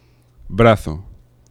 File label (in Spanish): "brazo", fricatives; laboratori fonetica